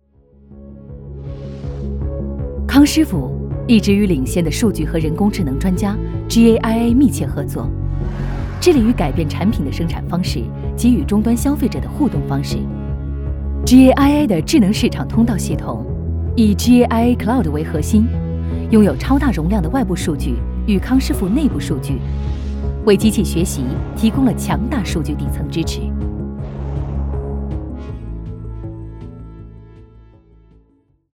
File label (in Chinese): 宣传片 企业 活力; 康师傅